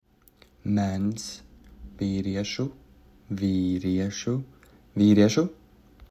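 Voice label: "Mens", Latvian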